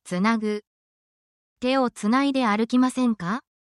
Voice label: japanese actions essential phrases